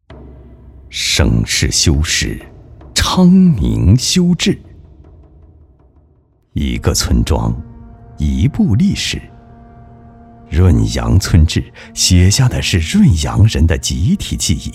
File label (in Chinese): 男 专题 村史